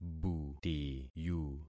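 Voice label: "butiyu", concatenated syllables; Affixing experiment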